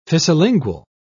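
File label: "fissilingual", British English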